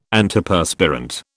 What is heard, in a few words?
"Antiperspirant", British